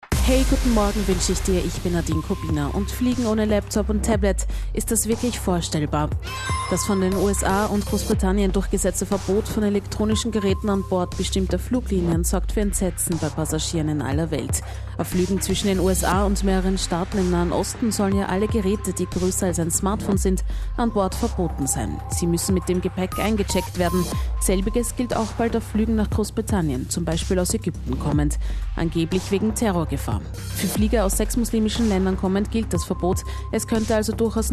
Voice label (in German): Interview auf Kronehit Radio; Austrian Wings; Laptopverbot an Bord